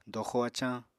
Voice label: "doxo-acañ", Apatani